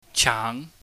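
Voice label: "chaang", Cantonese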